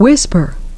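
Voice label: "whisper", English pronunciation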